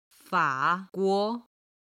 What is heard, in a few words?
"fa3 guo2", Chinese